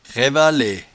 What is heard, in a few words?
"raivalaieverbe", patois jura